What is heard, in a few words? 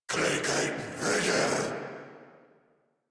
Player Spotted Corrupted Grineer Male